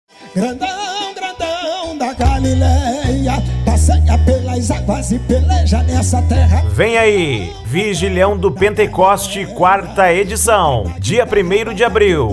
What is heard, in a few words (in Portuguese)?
Locutor; Igreja Vigilhao Do Pentecostes Formosa Goias GO